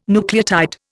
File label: "nucleotide", Indian